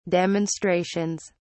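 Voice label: "demonstrations", British English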